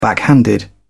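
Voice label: "backhanded", British English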